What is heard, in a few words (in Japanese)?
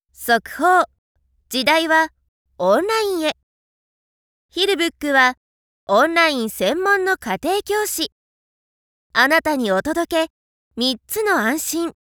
ナレーション 冒頭部分